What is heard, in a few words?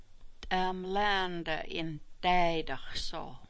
"daay", smalgyax